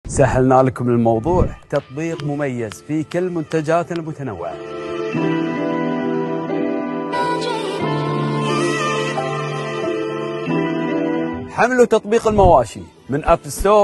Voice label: kuwaiti voiceover